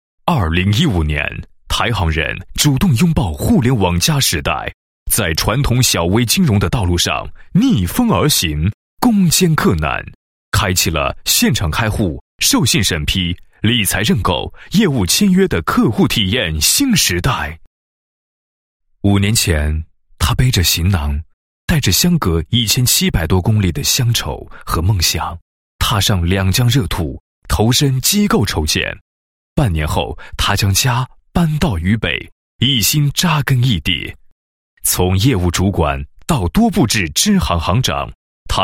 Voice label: 男 颁奖 短片, 配音; 十大人物短片

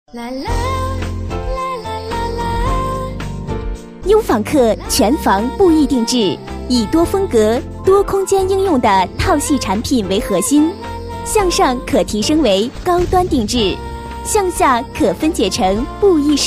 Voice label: 女, 广告, 国语女声, 年轻; 家纺

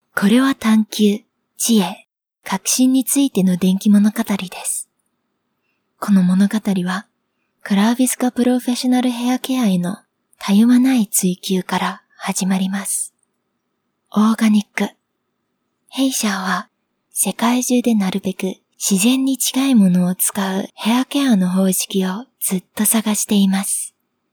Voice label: 日语女, 干音

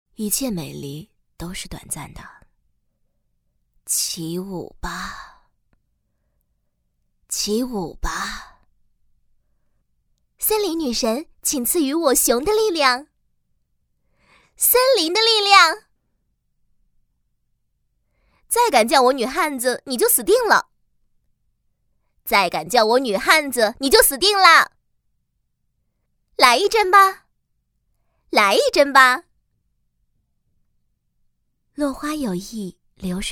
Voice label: 女19 游戏 角色 分裂 女声 游戏NPC多角色配音

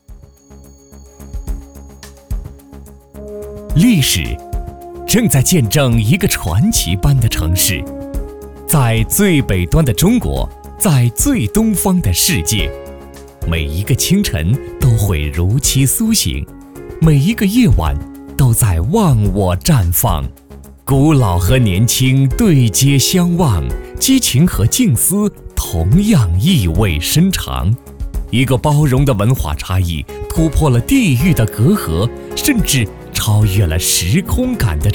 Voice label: 男100-城市宣传片-哈尔滨 激情 活力, 国语男声配音

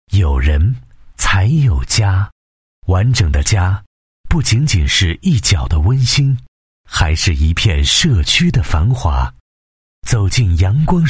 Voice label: 男 房地产专题 大气磁性品质内敛, 国语男声配音; 阳光水岸

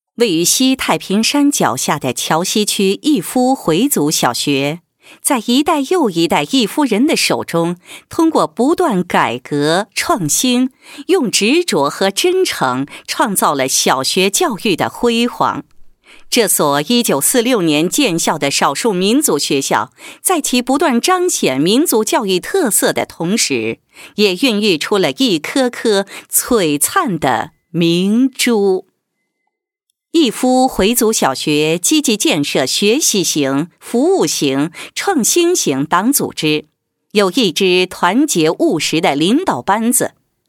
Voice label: 女, 学校宣传; 奋进中的逸夫回族小学配音

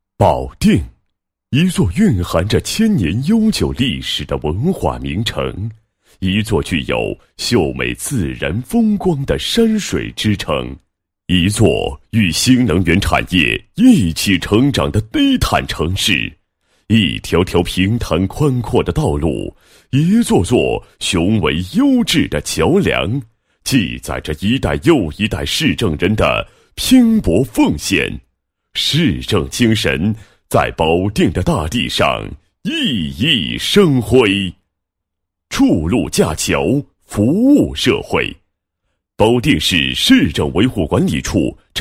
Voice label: 男 解说 市政维护管理; 实现市政维护管理跨越提升

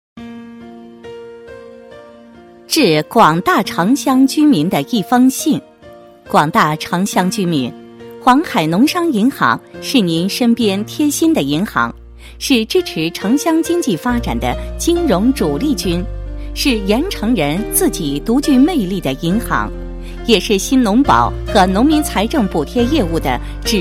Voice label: 女 专题 亲切告知样, 国语女声配音; 全能配音

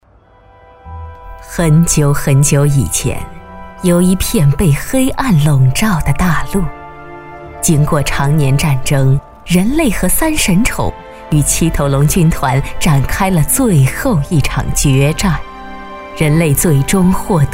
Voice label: 女 游戏片头, 国语女声配音; 宠物王国

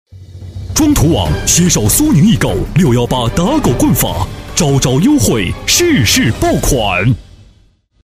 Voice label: 男 广告, 国语男声配音; 装图网

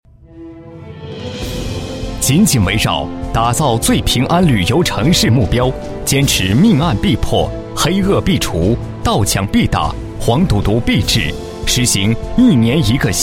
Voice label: 男 大气汇报, 国语男声配音 磁性; 旅游城市 小样

